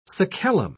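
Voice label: "sacellum", English, British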